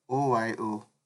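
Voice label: "OYO", Nigerian Pidgin, male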